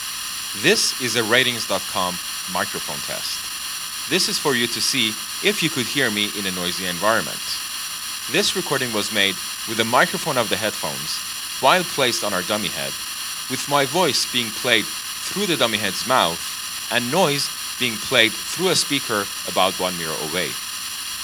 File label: noise noise gate